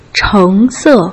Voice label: "cheng2 se4", Putonghua